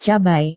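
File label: "cabai", Indonesian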